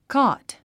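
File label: "cot", English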